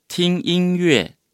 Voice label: "聽音樂" Chinese

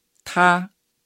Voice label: "他", Chinese

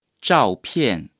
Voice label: "照片" Chinese

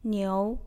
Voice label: "牛", Chinese

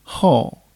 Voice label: "後" Chinese

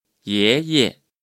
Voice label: "爺爺" Chinese

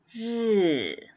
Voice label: 日 小聲

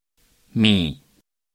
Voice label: "米" Chinese

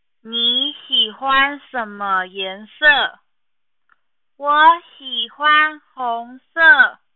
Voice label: 對話練習

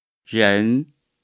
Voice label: "人", Chinese

